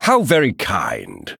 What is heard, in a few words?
Male Chess Piece Thanks; White King